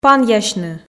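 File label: "панъясьны", Komi, female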